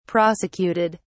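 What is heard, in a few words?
"prosecuted", British English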